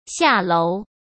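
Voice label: "下楼", Mandarin Chinese; chinese-flashcards; hsk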